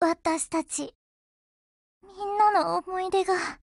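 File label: BREAK Japanese, Voice; GF Alarm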